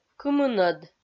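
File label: "кымынӧд", Komi-Zyrian, female; kpv audio dict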